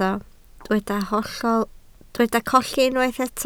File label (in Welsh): "colli", Welsh